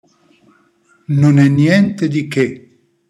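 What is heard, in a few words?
pronunciation "non e niente di che", Italian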